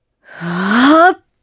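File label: Vox Casting Japanese; Priscilla; kings raid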